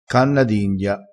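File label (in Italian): "canna d india", dialettale crespinese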